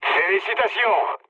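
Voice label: player killed enemy, mood low, French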